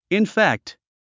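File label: "infect", English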